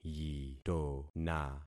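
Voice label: "yitona", concatenated syllables; Affixing experiment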